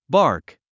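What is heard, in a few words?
"bark", English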